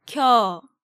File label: "Gyeo", Korean